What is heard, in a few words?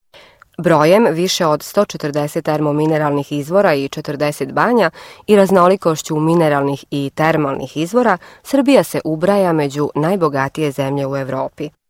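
serbian female voice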